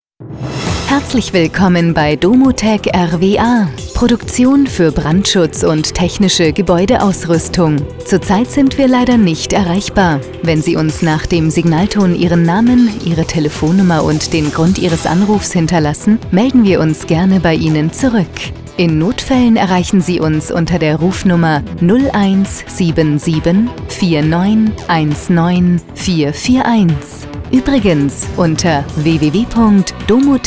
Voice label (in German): Ansage; DomoTec